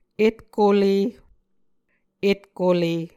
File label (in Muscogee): "etkole", Muscogee